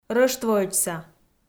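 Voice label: "рӧштвоӧдзса", Komi, female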